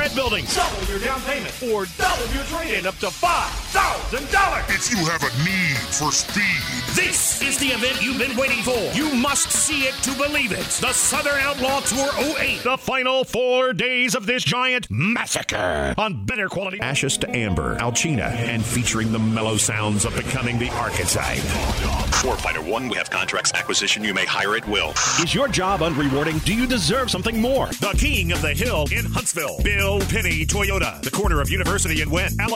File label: hard sell